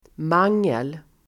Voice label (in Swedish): "mangel", Swedish